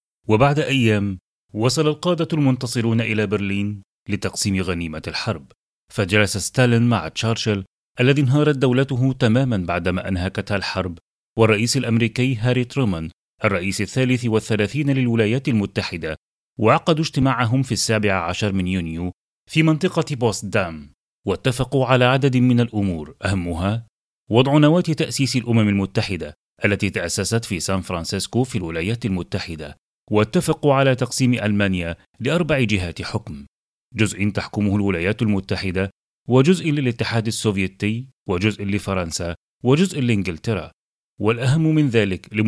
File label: documentay history